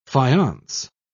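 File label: "faience", British English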